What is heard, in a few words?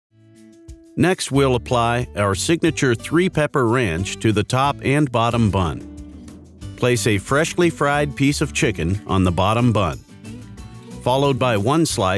Elearning instruction